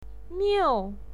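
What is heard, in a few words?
"miu" fourth tone, pinyin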